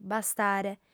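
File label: "bastare", female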